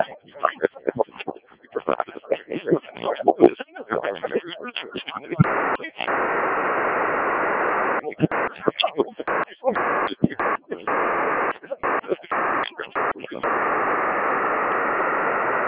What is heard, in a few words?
decoded speech